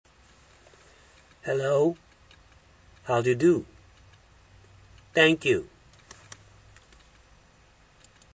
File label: english